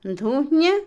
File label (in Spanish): Otomí, mujer; almohada; Casa; INALI